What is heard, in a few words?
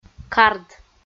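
"кард" Komi, female; kpv audio dict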